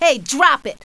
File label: drop it, female; kingpin; yolanda